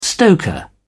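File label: "stoker", English, British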